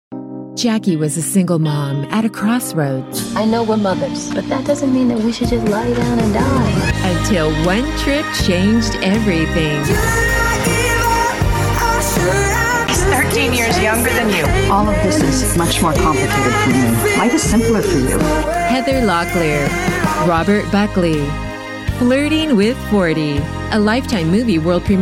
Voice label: promo

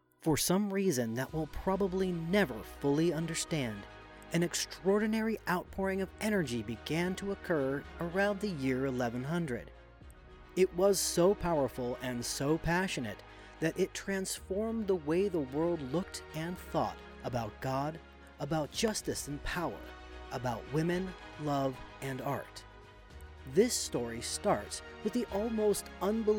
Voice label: Podcast

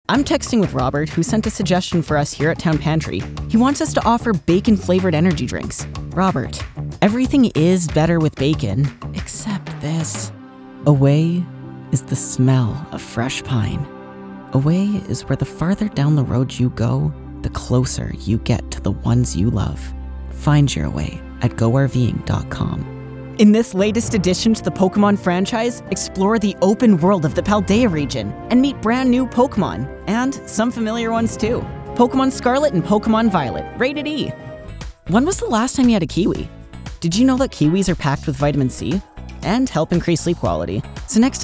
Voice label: Commercial Demo

